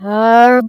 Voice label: "bruh" in reverse